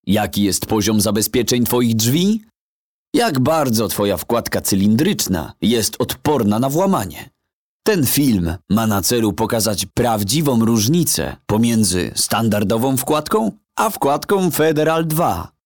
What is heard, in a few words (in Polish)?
reklama sam glos